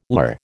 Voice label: "lur", American

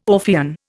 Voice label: "Orphean", Indian

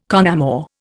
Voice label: "con amore", Indian

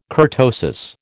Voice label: "kurtosis", American

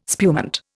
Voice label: "spumante", Indian

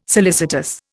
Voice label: "solicitous", Indian

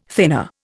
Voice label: "thinner", Indian

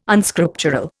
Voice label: "Unscriptural", Indian

